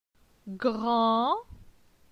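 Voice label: "Gran", French; phonetique; la syllabe an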